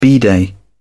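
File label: "bidet", English, British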